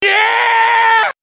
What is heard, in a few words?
"yeah" YELL